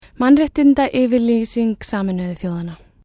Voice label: Icelandic title